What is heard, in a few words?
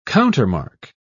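"countermark", British English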